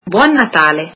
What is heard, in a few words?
Merry Christmas, italian